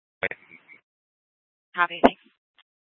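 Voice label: police department Dispatch, scanner; Mesa PD; 1 Fiesta Dispatch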